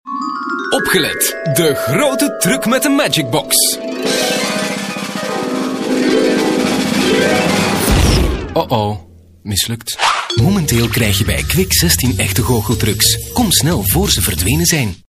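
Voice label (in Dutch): flemish male commercial; dutch; voice demos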